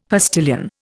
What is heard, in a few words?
"postilion", Indian; Dictionary